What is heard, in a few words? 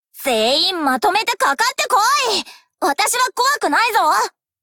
warcry